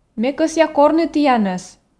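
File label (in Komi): ме кӧсъя корны тіянӧс, Komi, female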